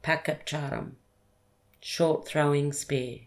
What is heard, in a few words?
"pakap-tyarəm" short trowing spear